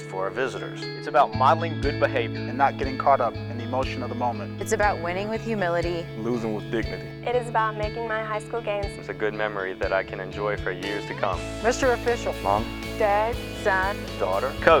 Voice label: Sportsmanship PSA